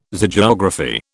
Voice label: "Zoogeography", British

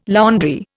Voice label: "laundry", Indian

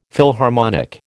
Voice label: "Philharmonic", American

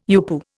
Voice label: "UPU", Indian

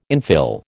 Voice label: "infill", American

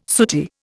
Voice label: "sooty", Indian

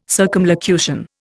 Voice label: "circumlocution", Indian

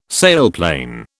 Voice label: "sailplane", British

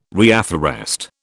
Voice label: "reafforest", British

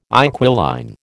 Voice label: "inquiline", American

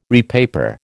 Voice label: "repaper", American

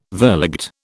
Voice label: "verligte", British

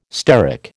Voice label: "steric", American

